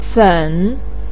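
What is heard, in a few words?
"fen", Mandarin pinyin, tone 3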